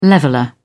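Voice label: "leveller", British English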